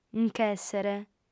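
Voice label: "nc'èssere", Sardinian, female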